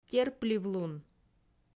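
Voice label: "терпливлун", Komi, female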